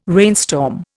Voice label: "rainstorm", Indian